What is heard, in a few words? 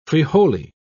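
"frijole", British English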